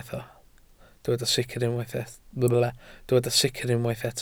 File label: "sicr", Welsh